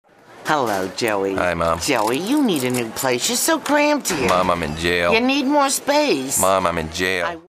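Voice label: anti announcer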